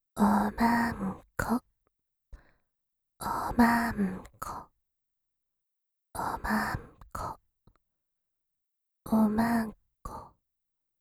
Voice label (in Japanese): 耳が幸せボイス; 淫語でオナてつ〜ゆるふわお姉さんに甘々にされちゃう音声〜